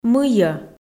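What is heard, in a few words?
"мыйӧ", Komi, female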